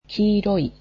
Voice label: "yellow", japanese